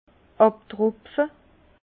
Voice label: "abtropfe", Bas Rhin; vin; égouttage

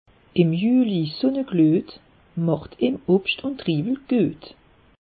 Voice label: "juli", alsace; meteo

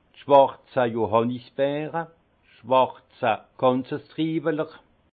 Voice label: "schwarze johannisbeere", alsace; vin; cassis

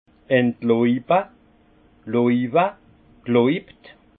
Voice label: "entlaube", alsace; vin; couper les feuilles

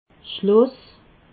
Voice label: "schloss", alsace

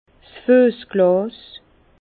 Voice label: "fuessglas", Bas Rhin; vin; verre à pied

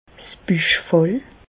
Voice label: "'s Büchfall", Bas Rhin; medecine; péritoine

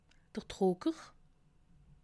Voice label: "drucker", alsace

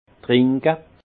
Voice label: "trinke", alsace; vin; boire

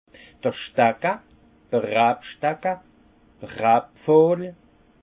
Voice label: "stacke", alsace; vin; échalas

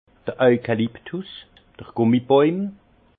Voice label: "eukaluptus", alsace; eucalyptus; vin

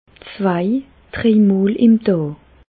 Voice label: zwei, Bas Rhin; medecine

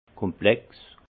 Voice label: "komplex", Alsace; vin; complexe

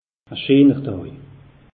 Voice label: "scheener daj", alsace; meteo

